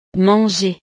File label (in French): "manger", frances